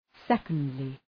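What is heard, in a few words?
"secondly", English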